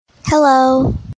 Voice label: hello female

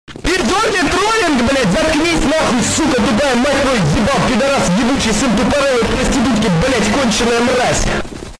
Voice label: russian man raging

